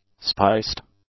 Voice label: "spiced", english; adjectives

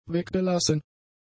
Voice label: "weggelassen", German; adjectives

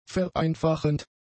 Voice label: "vereinfachend", German; adjectives

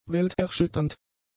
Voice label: "welterschütternd", German; adjectives

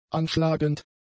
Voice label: "anschlagend", German; adjectives

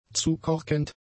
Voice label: "zukorkend", German; adjectives

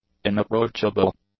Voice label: "inapproachable", English; adjectives

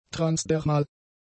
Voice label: "transdermal", German; adjectives

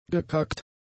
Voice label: "gekackt", German; adjectives

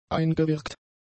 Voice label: "eingewirkt", German; adjectives

